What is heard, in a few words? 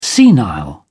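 "senile", English, British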